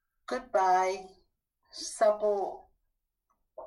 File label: Goodbye, Kiribati; Kiribati LW